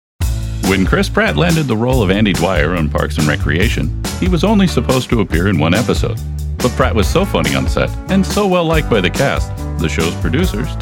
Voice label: Narration